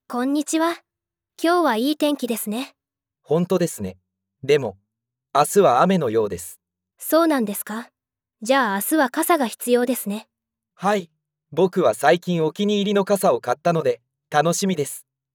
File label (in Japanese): 会話ナレーション